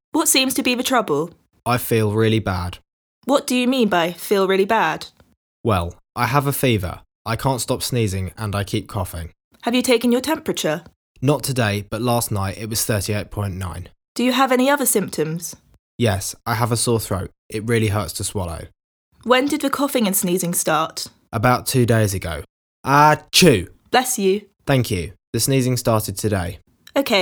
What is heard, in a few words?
Conversation; Bedside Manner Beginner